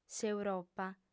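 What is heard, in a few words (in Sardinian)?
"s'europa", female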